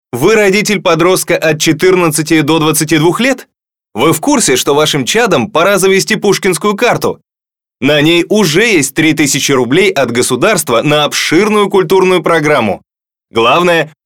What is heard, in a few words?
Male; Pushkin Card; 20s